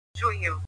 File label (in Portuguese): "junho", portugues